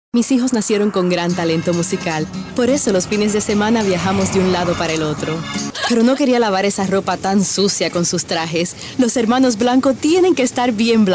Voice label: Spanish